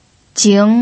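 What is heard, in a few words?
"jing" second tone, pinyin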